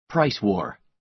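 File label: "price war", American English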